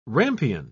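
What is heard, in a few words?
"rampion", British English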